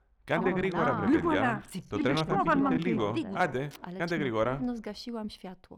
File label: voice mix loud